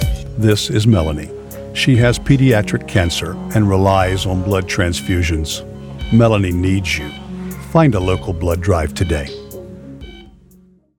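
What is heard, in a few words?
public service announcement; Red Cross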